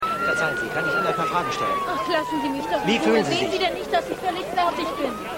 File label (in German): Reporter Gerettete Frau, Stimmproben